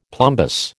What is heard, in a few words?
"plumbous", American